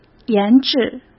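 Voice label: "研制", Mandarin Chinese; chinese flashcards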